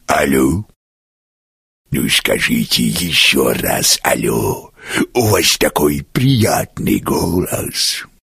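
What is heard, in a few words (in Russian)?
u vas prijatnyj golos avtootvetchik, answering machine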